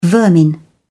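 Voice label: "vermin", British English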